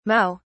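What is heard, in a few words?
"mao", English, British English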